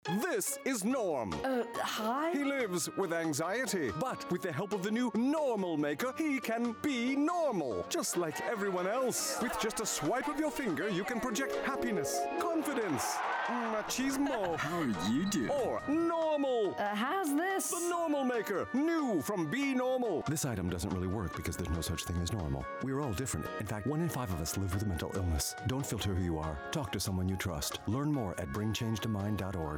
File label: Radio Ad